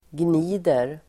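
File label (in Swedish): "gnider", Swedish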